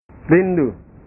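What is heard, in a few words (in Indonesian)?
"rindu", Indonesian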